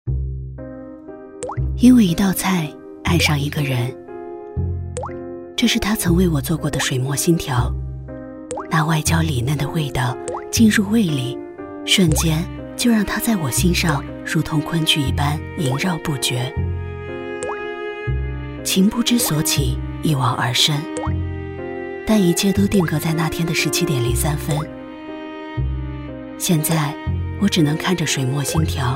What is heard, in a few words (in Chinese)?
内心独白